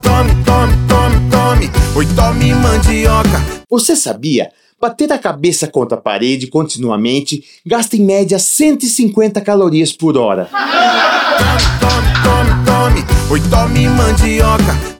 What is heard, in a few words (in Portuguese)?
spot piada